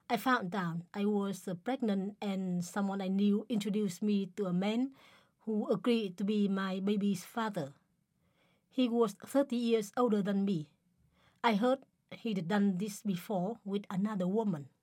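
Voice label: Vietnamese, accented English; GoLocalise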